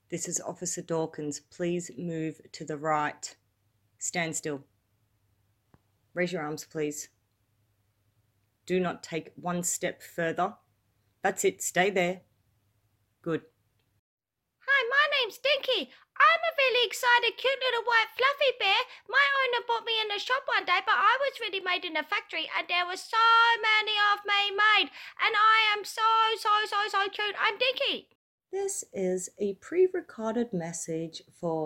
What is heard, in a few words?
character Voicereel